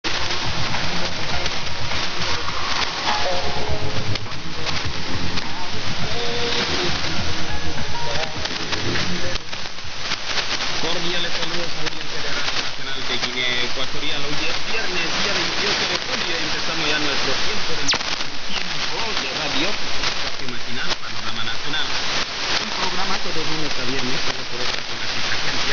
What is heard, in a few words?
Radio Nacional Malabo Eq Guinea IDs